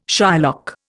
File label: "Shylock", Indian